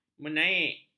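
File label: "menaik", Malay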